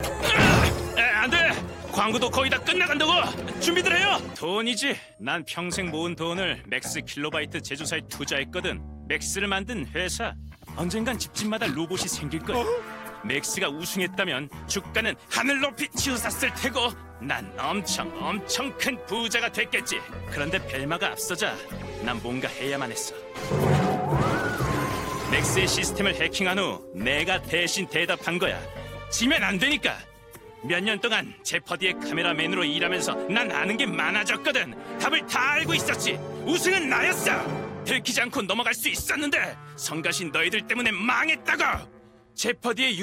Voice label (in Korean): 20대 사기꾼 청년 비열한; KBS